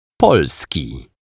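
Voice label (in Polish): "polski", Polish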